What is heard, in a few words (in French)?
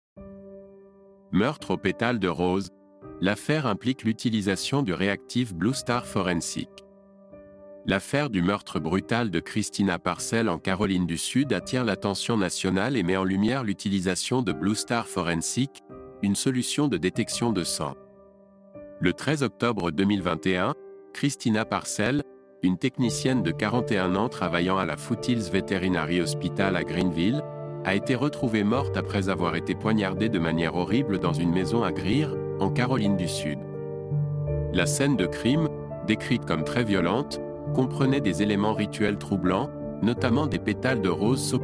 TEST VOIX AUDIO